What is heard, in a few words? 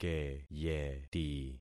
"keyeti", concat syllables; Affixing experiment